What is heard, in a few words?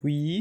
man saying yes